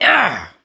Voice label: hurt minor, survivor voice mechanic